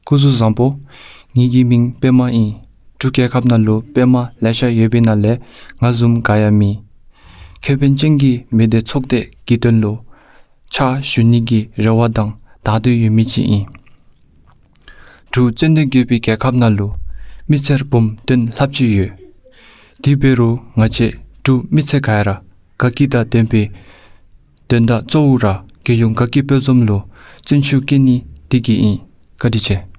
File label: Dzongkha Male